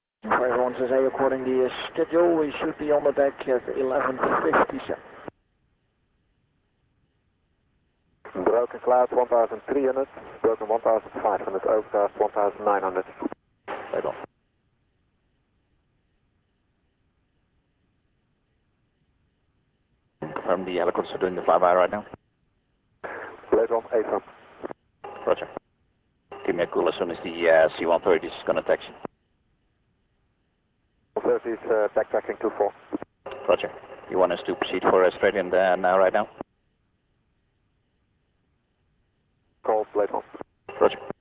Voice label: Royal Air Force Radio

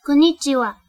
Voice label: "Hello", Japanese

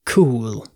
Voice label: Cool Voice Vocal

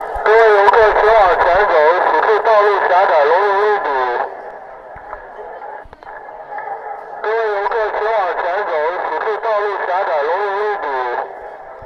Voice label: Loop Megaphone Voice In Beijing

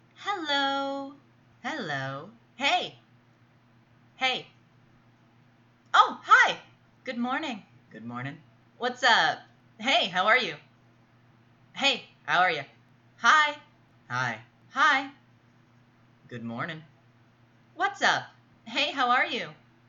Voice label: Female Greetings

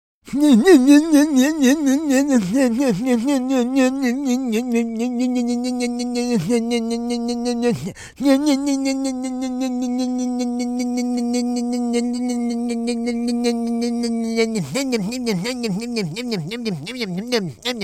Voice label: Funny Nonsensical Babbling

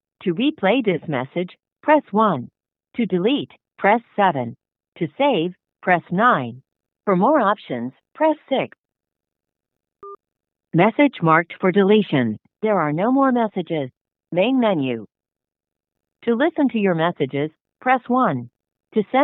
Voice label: Voicemail

